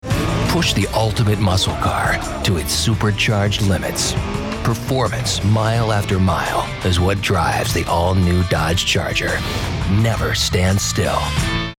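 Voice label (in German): Radio Werbespots Jugendfrei, English US; NATIVE PROFISPRECHER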